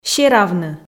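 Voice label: "серавны", Komi, female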